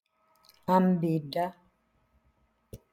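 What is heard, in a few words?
"Ambidda", Sardinian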